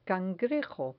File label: "cangrejo", Spanish